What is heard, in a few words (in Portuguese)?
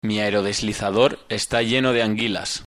hovercraft espanhol